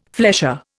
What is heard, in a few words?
"flesher", Indian